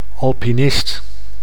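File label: "alpinist", Dutch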